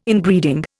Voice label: "Inbreeding", Indian

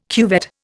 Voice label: "cuvette", Indian

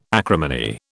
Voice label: "acrimony", British

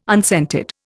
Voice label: "unscented", Indian

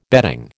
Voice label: "bedding", American

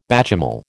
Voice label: "bÂchamel", American

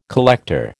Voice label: "collector", American

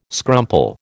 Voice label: "scrumple", American

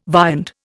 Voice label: "viand", Indian

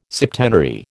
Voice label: "septenary", American

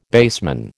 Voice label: "baseman", American

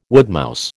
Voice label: "woodmouse", American

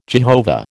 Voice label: "Jehovah", American

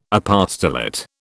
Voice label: "apostolate", British

